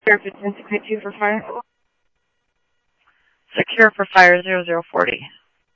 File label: Mesa Simulcast MESA PD Mesa Police Dep, scanner audio; MESA PD 1 Fiesta